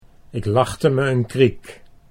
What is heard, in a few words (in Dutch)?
"ik lachte kriek", Dutch